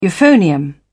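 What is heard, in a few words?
"euphonium", British English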